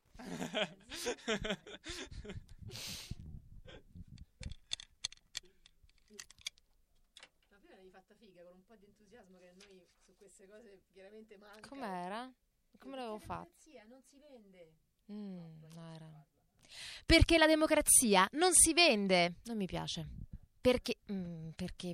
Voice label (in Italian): spot acqua voce